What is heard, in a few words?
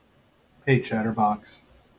hey chatterbox, en-us